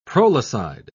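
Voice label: "prolicide", British English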